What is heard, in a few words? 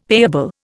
"payable", Indian; Dictionary